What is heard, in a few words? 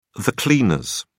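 "cleaners", English, uk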